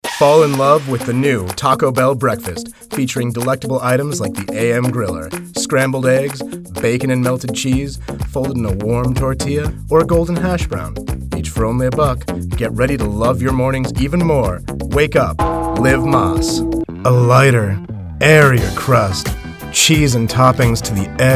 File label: Men Commercial